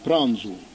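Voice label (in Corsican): "pranzu", Corsican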